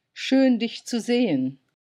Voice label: "Schön", German; greetings phrases